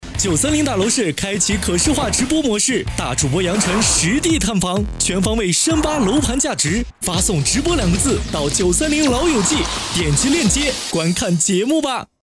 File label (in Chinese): 融媒体直播